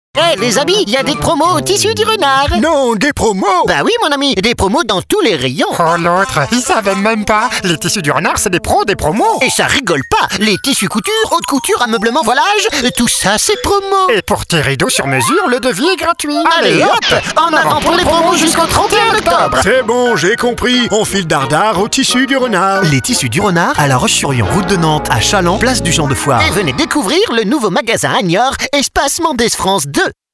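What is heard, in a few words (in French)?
spot, spots radio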